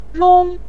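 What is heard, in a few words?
"rong", Mandarin Chinese, neutral tone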